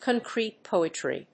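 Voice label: "concrete poetry", English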